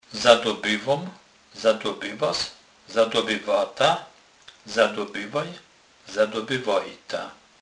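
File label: "zadobyc", Kurpie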